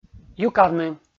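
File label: "юкавны", Komi, male; kpv audio dict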